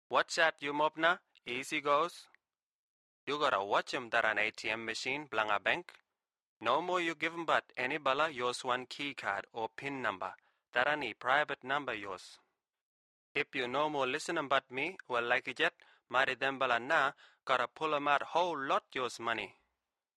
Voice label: kriol

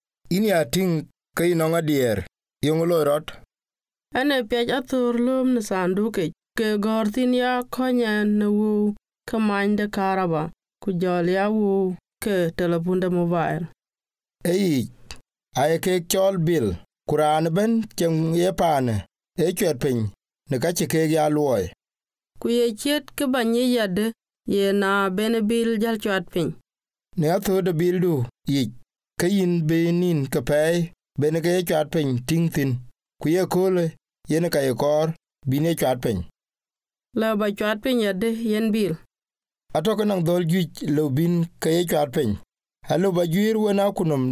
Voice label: paying bills dinka

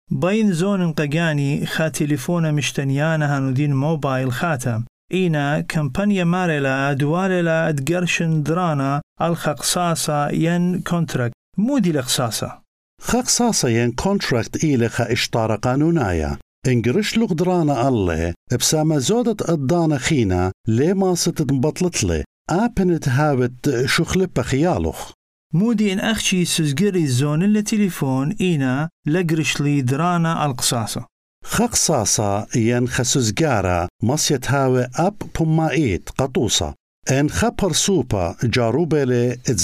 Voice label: contracts assyrian

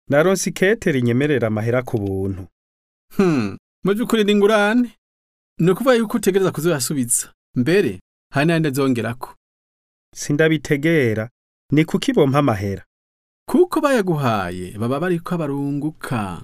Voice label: credit, kirundi

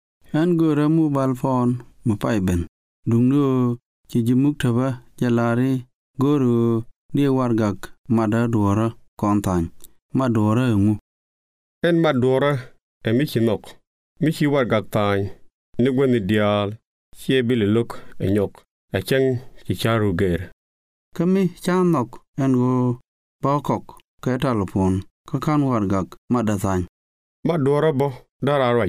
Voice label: contracts nuer

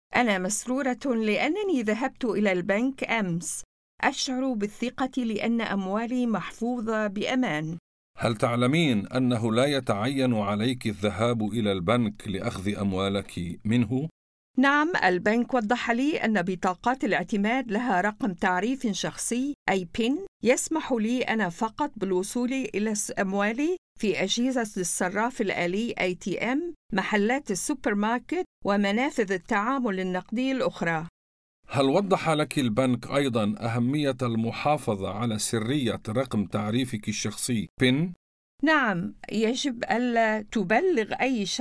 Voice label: banking arabic

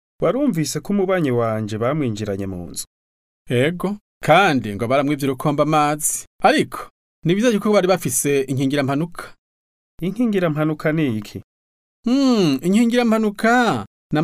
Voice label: insurance, kirundi

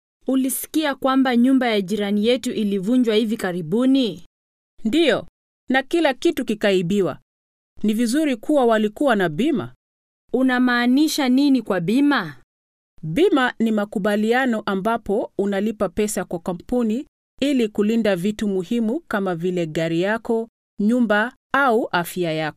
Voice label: insurance swahili

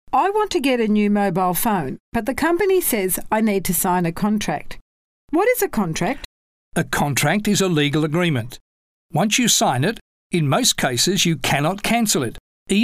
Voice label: contracts english

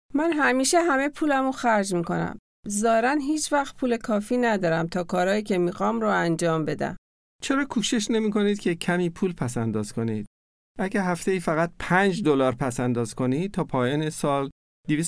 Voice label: saving money farsi

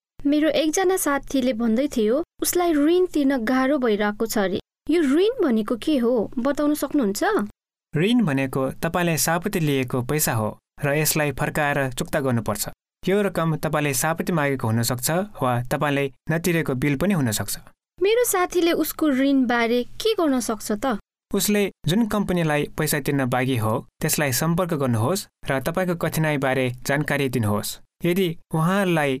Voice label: debt nepalese

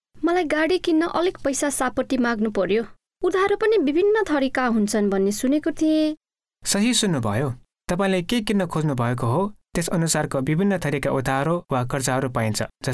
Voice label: types of credit, nepalese

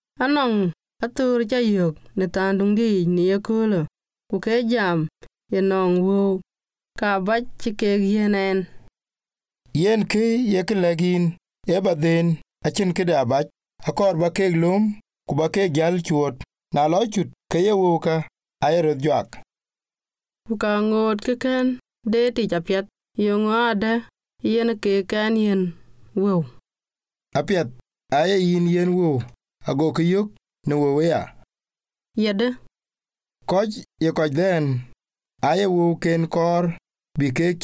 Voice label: credit dinka

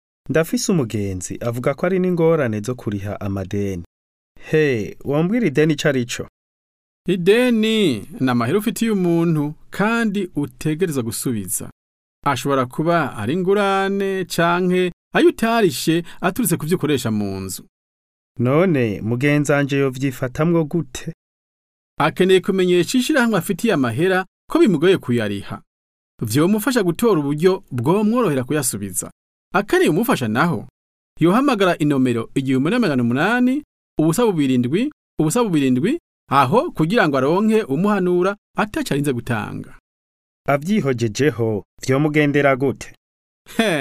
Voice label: debt kirundi

